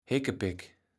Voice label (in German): "Heckepeck", Platt